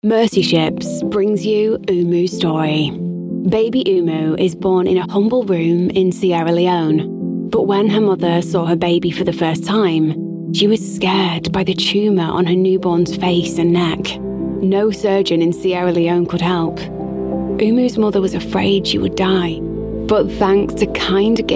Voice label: charity read